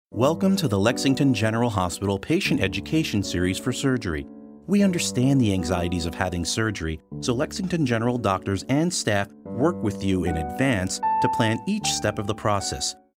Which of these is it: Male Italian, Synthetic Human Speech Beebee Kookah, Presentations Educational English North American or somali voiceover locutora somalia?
Presentations Educational English North American